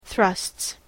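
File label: "Thrusts", English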